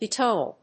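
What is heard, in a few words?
"Bitonal", English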